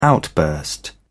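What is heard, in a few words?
"outburst", British English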